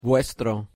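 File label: "vuestro", Spanish; espanol en el mundo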